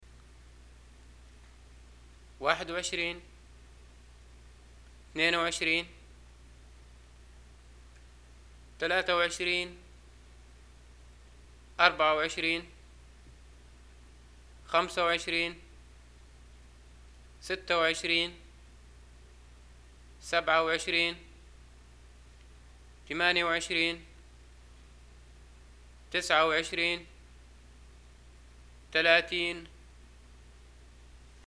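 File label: numbers 21 30, Libyan arabic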